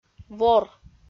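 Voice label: "вор", Komi, female; audio dict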